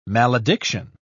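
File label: "malediction", British English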